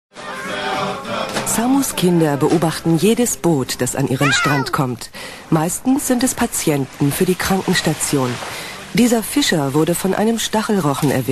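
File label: german female voiceover documentary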